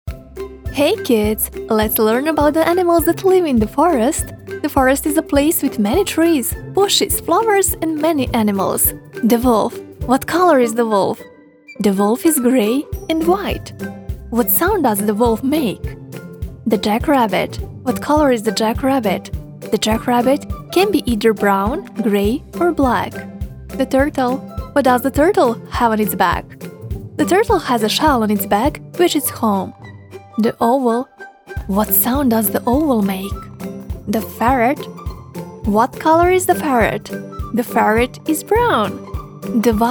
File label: english animation; Professional Serbian native voice-over actor from Belgrade